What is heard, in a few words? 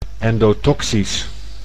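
"endotoxisch", Dutch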